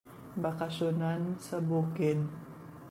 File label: Cebuano